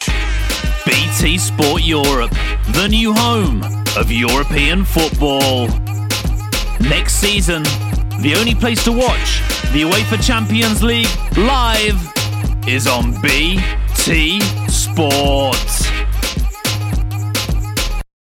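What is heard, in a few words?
English Voice Over is moving and energetic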